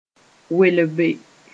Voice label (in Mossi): "welbe", moore